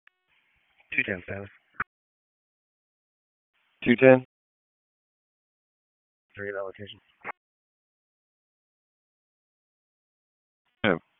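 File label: Police Northeast District Disp, radio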